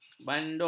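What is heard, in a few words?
"bandung", Malay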